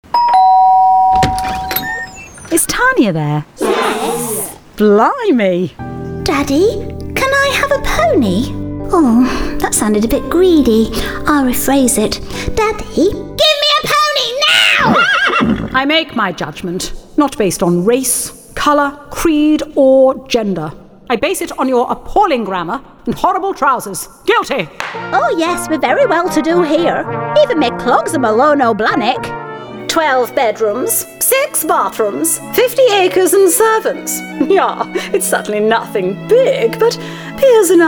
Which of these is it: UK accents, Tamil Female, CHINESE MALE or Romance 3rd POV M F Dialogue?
UK accents